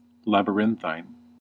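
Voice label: "labyrinthine", American English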